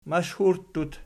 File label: "mashhurttut", Archi